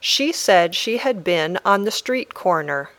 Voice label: Dictation